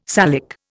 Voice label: "Salic", Indian

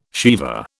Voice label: "sheva", British

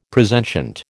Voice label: "presentient", American

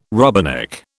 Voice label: "rubberneck", British

